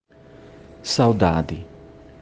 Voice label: "Saudade", sawˈdadi; Бразилия; Саудаде